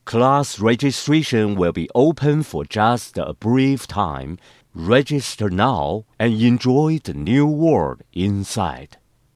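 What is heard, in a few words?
Chinese English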